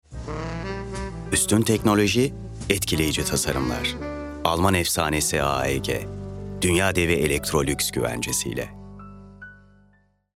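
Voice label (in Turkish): kurumsal imaj, seslendirme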